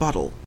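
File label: "bottle", Canadian English; pronunciations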